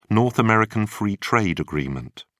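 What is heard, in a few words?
"north american free trade agreement", British English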